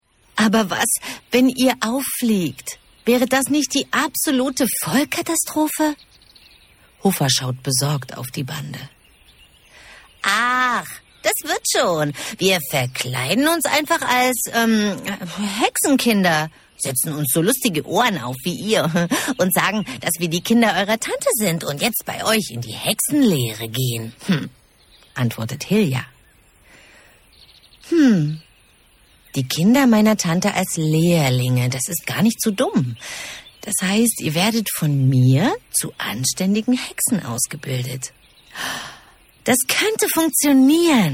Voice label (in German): Hörspiel; huffabande